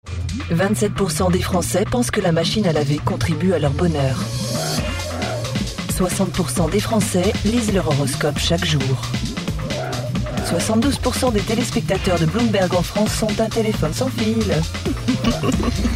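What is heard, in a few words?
French, Promo; GoLocalise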